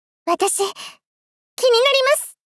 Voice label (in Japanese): わたし！気になります！ 少女 中音域